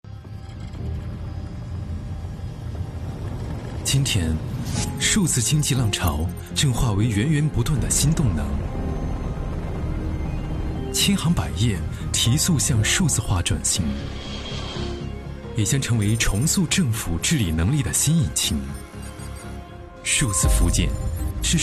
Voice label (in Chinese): 男 宣传片; 数字中国创新大赛